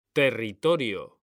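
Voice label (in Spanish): "territorio", Spanish, male; mekanta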